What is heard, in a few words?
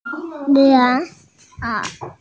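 "cotton-padded jacket", Mandarin Chinese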